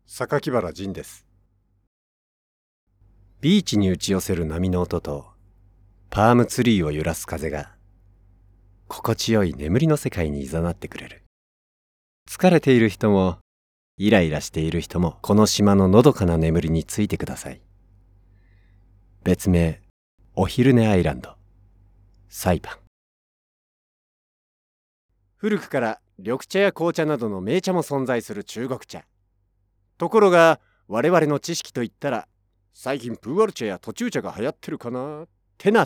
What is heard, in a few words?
narration